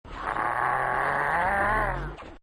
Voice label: elite pissed